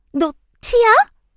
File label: hurt vo; melodie